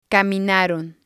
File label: "caminaron", spanish